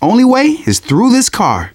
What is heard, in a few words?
tank in train yard, manager, survivor, voice